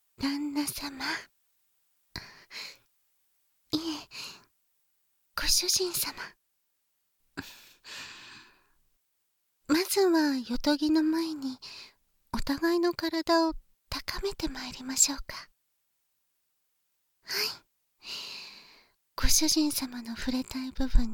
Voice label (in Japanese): 囁き焦らし誘惑, バイノーラルマイク 効果音あり, ASMR